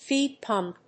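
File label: "feed pump", English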